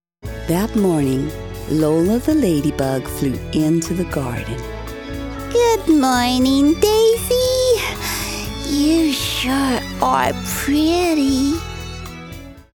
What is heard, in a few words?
Story US; Lola US